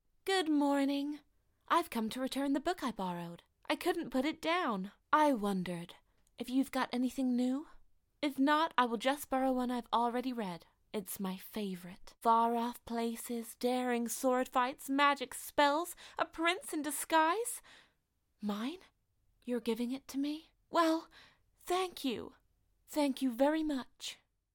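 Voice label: British English Character; voice demos; GoLocalise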